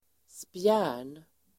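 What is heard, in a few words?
"spjärn", Swedish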